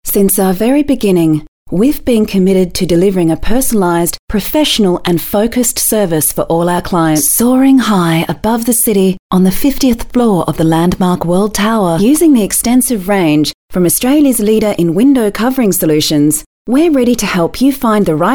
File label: Corporate